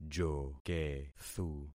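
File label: "jokethu", concatenated syllables; Affixing experiment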